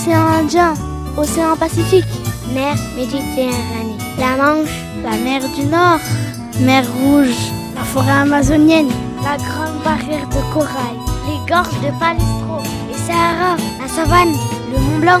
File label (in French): pub ecocitoyenne les merveilles de la nature